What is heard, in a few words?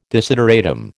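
"desideratum", American English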